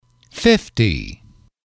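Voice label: "fifty", english, male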